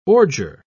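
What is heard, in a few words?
"ordure", English, British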